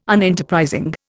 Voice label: "Unenterprising", Indian

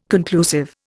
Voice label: "conclusive", Indian

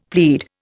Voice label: "plead", Indian; Dictionary

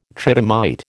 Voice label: "tridymite", American

